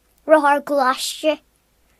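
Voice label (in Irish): "motorcycle", Irish; gcompris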